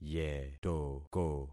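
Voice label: "yetoko", concatenated syllables; Affixing experiment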